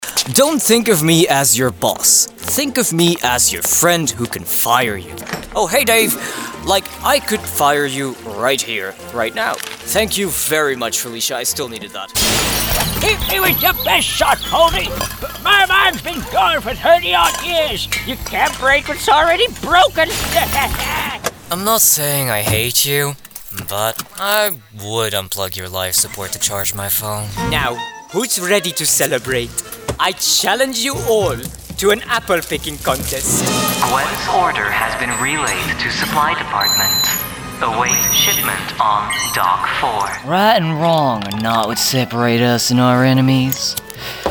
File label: ANIMATION